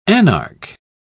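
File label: "anarch", British English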